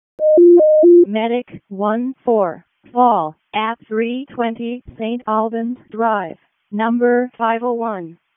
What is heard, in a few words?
EMS ALERT, p25; wake